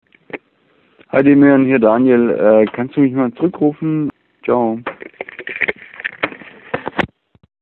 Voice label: Voicemail